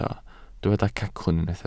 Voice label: "cacwn", Welsh